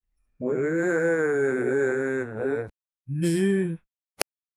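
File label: old man mumbling; dialogue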